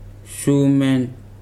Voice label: "tail", Weri